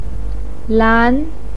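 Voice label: "lan" second tone, chinese, voice pinyin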